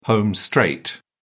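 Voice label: "home straight", British English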